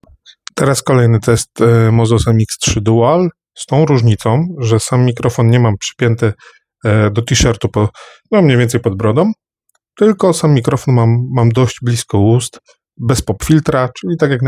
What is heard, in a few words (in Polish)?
redukcja szumow blisko; Mozos MX3 Dual